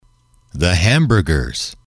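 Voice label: "the hamburgers", English, male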